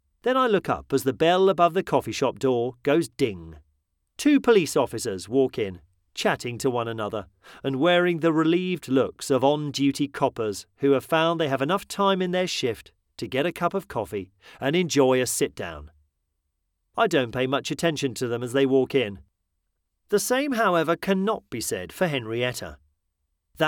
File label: male british voiceover audiobooks